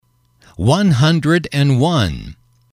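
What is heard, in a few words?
"one hundren one", English, male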